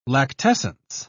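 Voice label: "lactescence", British English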